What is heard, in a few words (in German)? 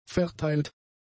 "verteilt", German; adjectives